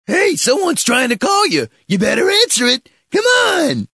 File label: better answer cartoon style